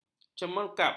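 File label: "cemerkap", Malay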